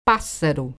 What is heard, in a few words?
"BIRD", portuguese brazilian